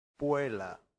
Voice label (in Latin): "puella", latin; santillana